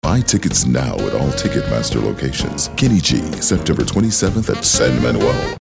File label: soft spoken